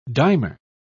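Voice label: "dimer", British English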